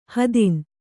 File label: "ಹೆದ್ನ", Kannada